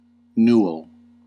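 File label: "newel", US English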